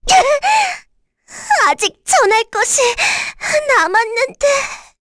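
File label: Vox Dead, Korean; Rehartna; kingsraid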